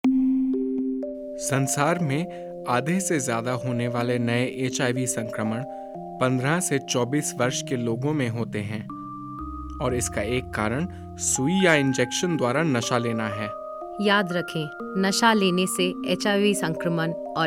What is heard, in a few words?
spot hindi music